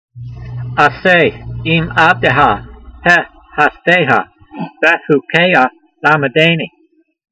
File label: voice, hebrew language; Psalm 119:124; a'say eem-avdeha he'hasdeyha ve'hukeyha lamedaynee